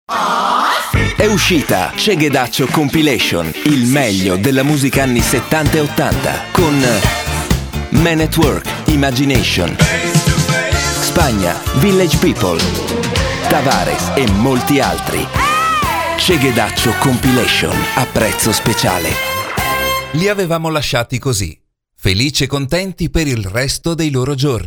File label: ita male; lektoring